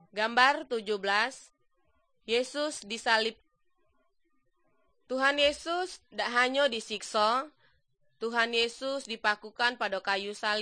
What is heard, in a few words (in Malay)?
good news malay jambi